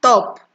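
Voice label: "top", Nigerian Pidgin, female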